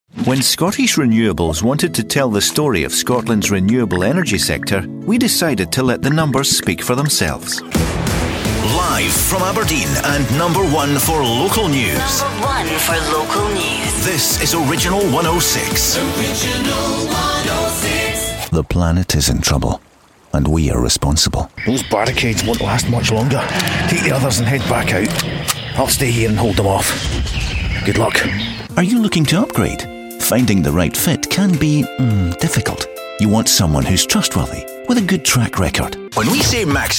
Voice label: commercial